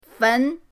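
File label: "fen", Mandarin pinyin, second tone; pinyindct-open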